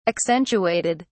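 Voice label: "accentuated", English, British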